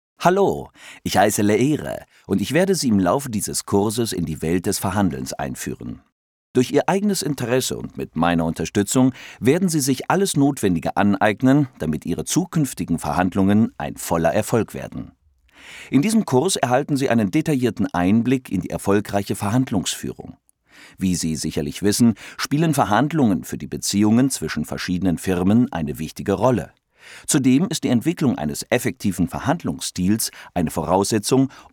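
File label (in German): elarning, deutsch männer; sprecher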